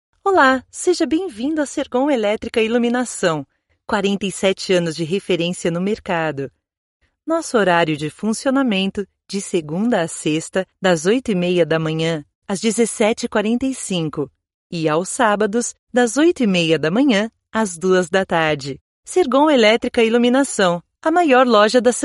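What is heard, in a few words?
IVR, Brazilian Portuguese; voice demos; GoLocalise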